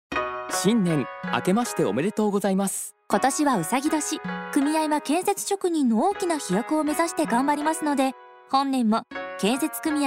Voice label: radio; osaka